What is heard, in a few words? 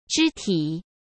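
"肢体", Mandarin Chinese; hsk; chinese flashcards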